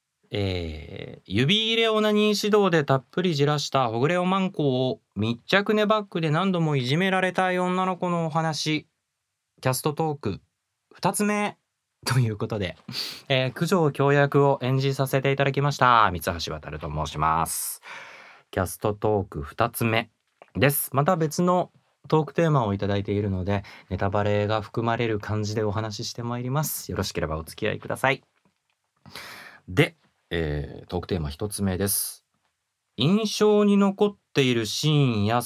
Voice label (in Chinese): 声优访谈